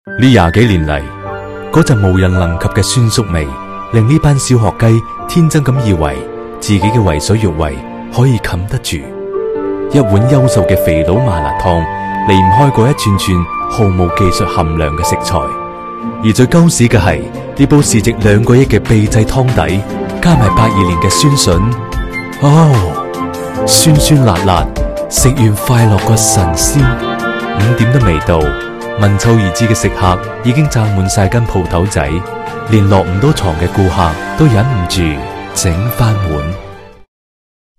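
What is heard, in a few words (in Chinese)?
粤语短视频麻辣烫配音舌尖风格; 肥佬麻辣烫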